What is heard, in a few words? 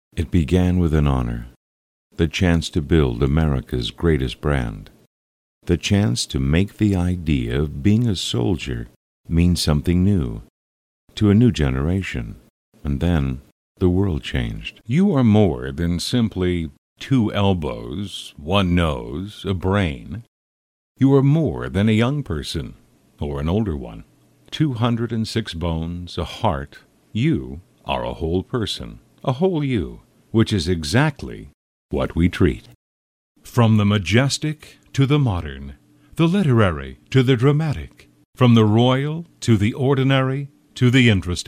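narration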